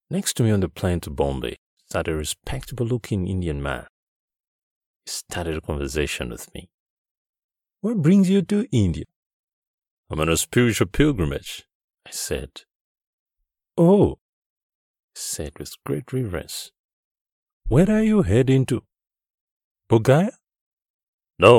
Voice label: Multi Accent Audiobook